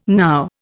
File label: "now", Indian